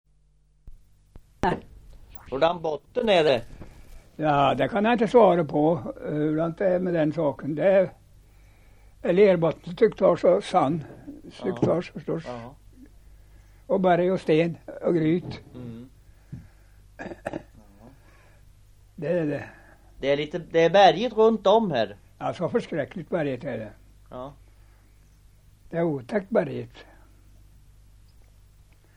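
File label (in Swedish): Oppeby Östergötland, dialekter; Folkminnen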